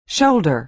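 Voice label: "shoulder", American English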